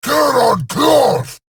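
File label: Deploy Drone, Dialog Barks Battle, English; Warframe; EidolonGrineer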